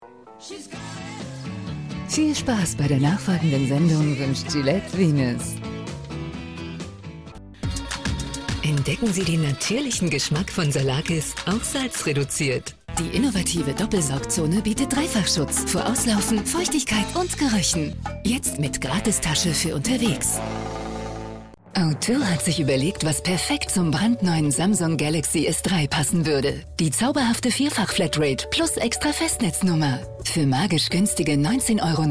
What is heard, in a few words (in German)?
tv und funkspots